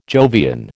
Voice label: "Jovian", American